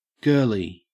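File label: "girlie", Australian English; pronunciations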